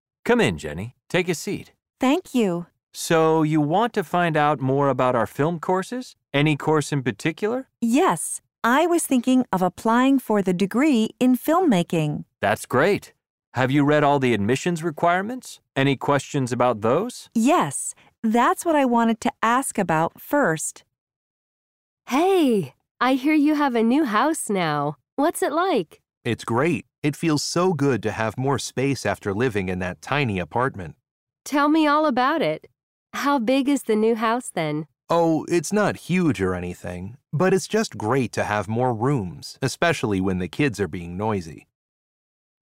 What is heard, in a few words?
ESL Adult American